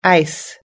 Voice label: "ice", us-english

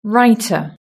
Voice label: "writer", British English

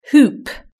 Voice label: "hoop", British English

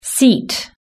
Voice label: "seat", British English

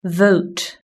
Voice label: "vote", British English

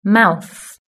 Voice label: "mouth", British English

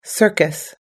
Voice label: "circus", us english

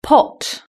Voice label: "pot", British English

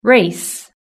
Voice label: "race", British English

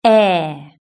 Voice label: "air", British English

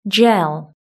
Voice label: "gel", British English

